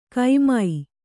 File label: "ಕೈಮೈ", kannada